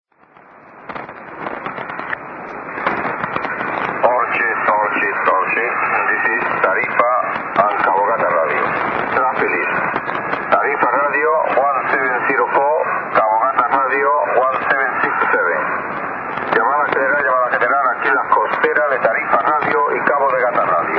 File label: usb call; europe